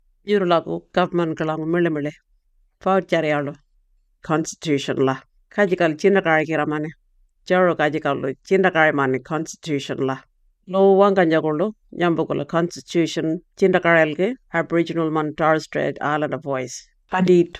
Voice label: Warlpiri; Referendum Booklet; Constitution Alteration